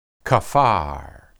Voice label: "kaphar", Hebrew